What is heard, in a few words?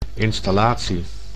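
"installatie", Dutch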